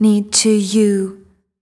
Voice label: fem spo, Vocals